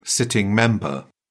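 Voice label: "sitting member", British English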